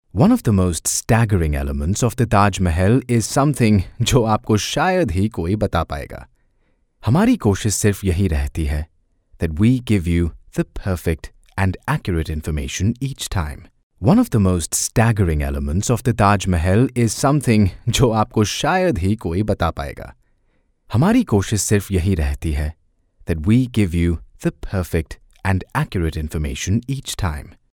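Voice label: Hinglish, India, male; voice over talent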